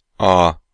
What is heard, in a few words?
Feudal Landlord in Turkish